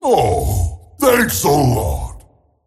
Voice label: tiny thanks; dota